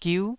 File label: "giu9", taiwanese